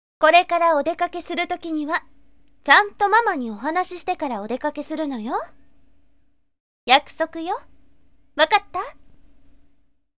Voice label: sample girl